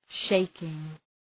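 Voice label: "shaking", English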